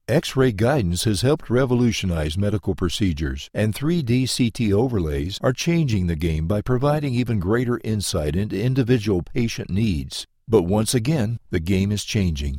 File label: Medical Narration